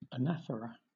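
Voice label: "anaphora", British English; pronunciations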